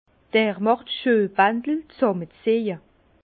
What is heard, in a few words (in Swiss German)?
"schuhebandel", Bas Rhin; vin; vin acide resserre les lacets de chaussures